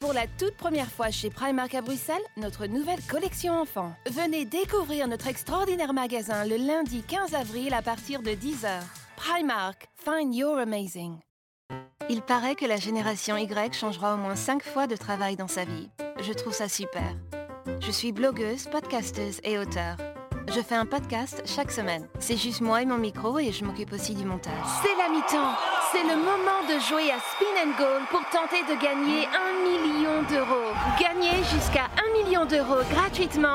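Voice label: French, Commercial; GoLocalise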